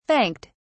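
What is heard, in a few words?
"thanked", English, British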